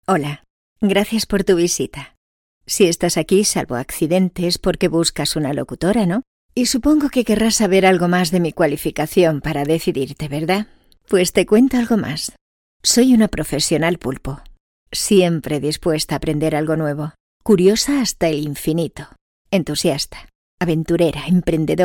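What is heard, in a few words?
female Spanish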